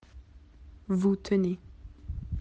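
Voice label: "tenir", French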